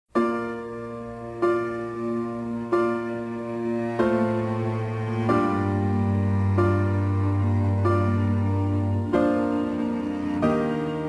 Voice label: Aniversário Atrasado (do Colega de Trabalho) Masculino; Aniversários; Aniversários diversos

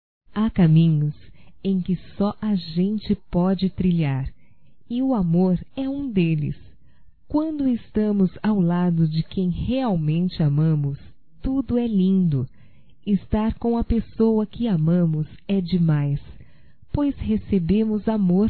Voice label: Carinhos Feminino, Telemensagens, Só Voz; Telemensagens 2017

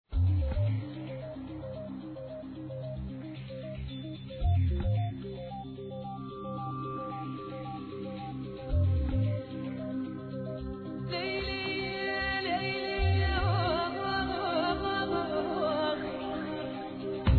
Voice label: ROMANTICA F

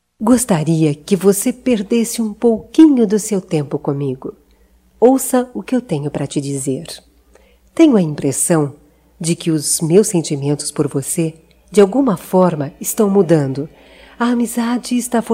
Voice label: Conquista Feminino, Telemensagens, Só Voz; Telemensagens 2017

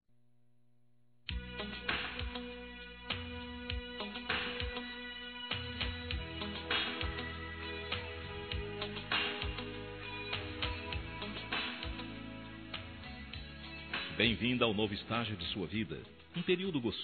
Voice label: Aniversário Adolescente MASCULINO

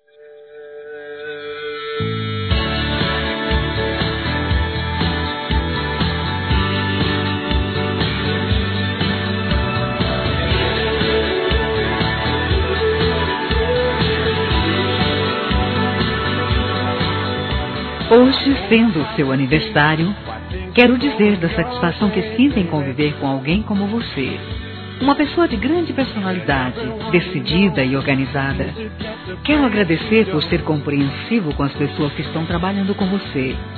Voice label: PATRÃO F; Aniversários; Aniversários diversos; fem

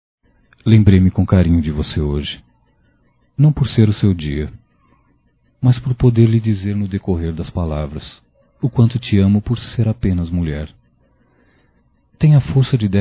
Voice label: Reconciliação Romantica Masculino

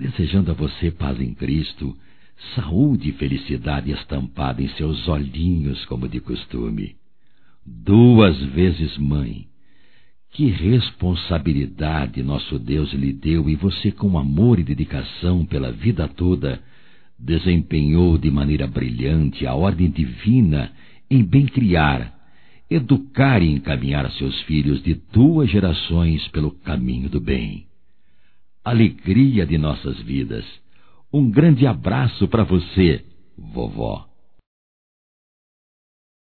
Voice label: Aniversário Avó Masculino; Telemensagens 2017; Aniversarios

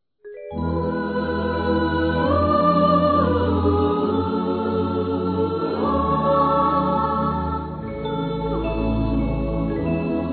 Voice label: Natal Para Tia Masculino; Telemensagens; Natal e Ano novo

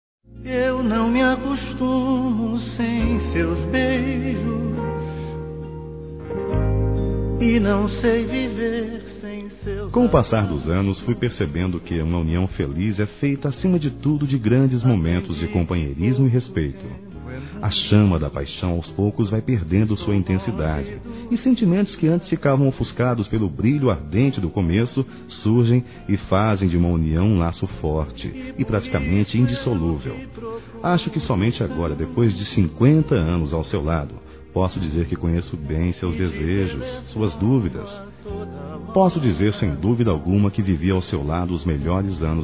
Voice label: Bodas de Ouro Masculino, Telemensagens; Bodas e Casamento

